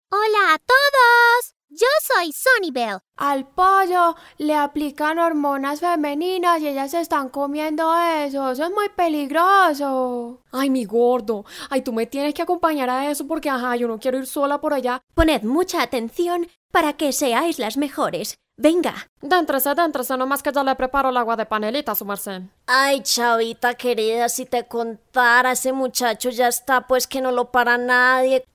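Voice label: Spanish Latin America female character; spanish americas voice over talent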